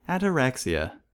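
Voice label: "ataraxia", US English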